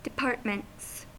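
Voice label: "departments", American English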